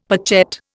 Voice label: "pochette", Indian; Dictionary

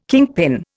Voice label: "kingpin", Indian

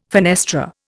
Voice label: "fenestra", Indian

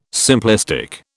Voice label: "simplistic", British

